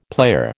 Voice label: "player", American

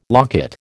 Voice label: "locket", American

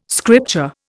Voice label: "scripture", Indian

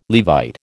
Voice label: "Levite", American

